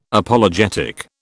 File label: "Apologetic", British